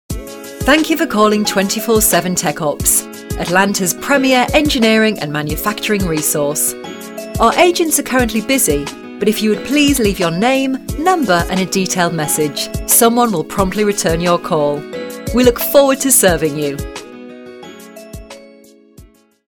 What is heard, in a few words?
uk